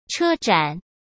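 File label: "车展", Mandarin Chinese; chinese-flashcards; hsk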